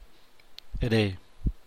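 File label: "rgii", San Mateo Macuilxochitl Zapotec; asar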